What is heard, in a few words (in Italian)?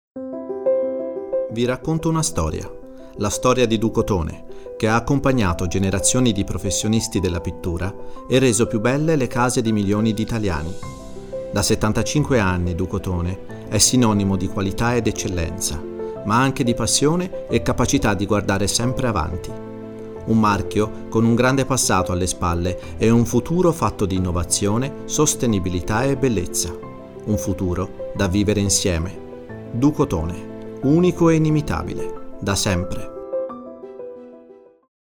Spot Radio; Ducotone75